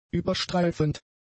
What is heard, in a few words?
"überstreifend", German; adjectives